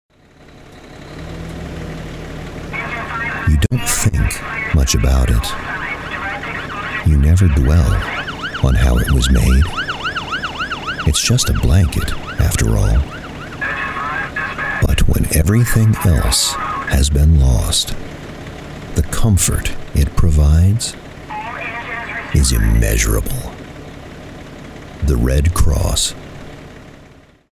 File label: Commercial PSA; Red Cross